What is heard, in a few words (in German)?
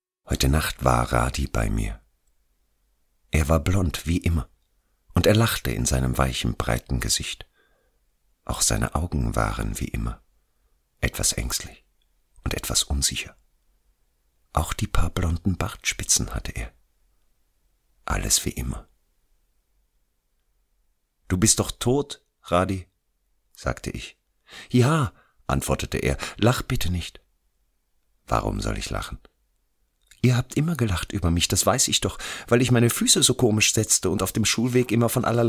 Hoerbuch Shortstory; Borchert